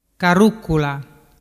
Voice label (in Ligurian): "caruccula", dialettu